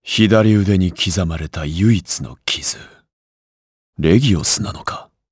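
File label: vox dia Japanese; Lusikiel; kingsraid; jp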